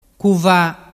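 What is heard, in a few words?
"cuva", Vernazzese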